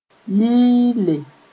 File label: "yɩɩlle", Mooré